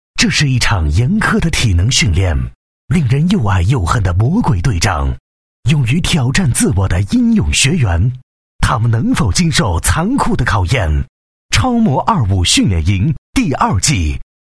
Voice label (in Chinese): 男 片头 大气 低沉 磁性 悬疑, 国语男声配音; 超模25训练营